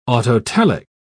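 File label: "autotelic", English, British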